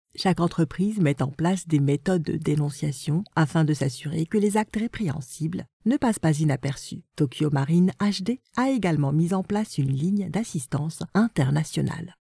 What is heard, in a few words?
elearning